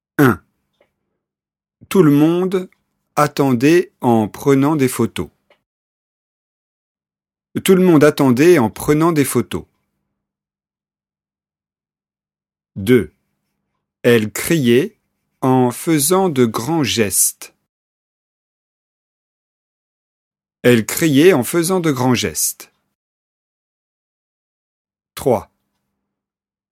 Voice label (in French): repeter gerondif dialogue